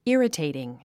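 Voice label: "irritating", 英単語 発音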